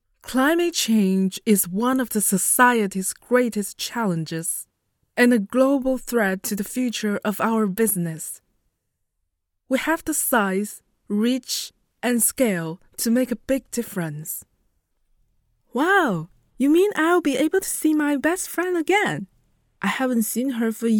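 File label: Chinese, Accented English; GoLocalise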